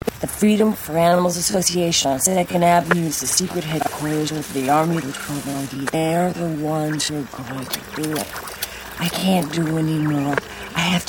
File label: voicemail